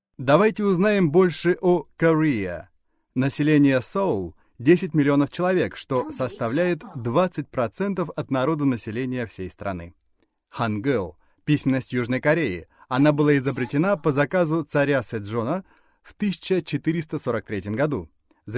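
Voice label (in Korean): 러시아어 신뢰/묵직; Voice actor sample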